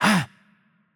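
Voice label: Turkish attack light voice; bloom minigame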